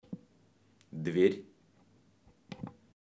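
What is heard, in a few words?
"dver", Russian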